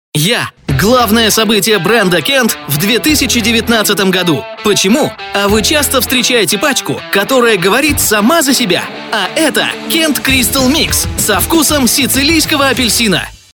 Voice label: russian promos